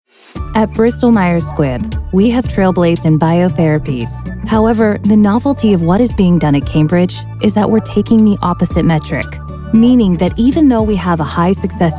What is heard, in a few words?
vo medical narration